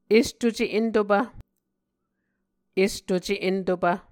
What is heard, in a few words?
"estuce entopv", Muscogee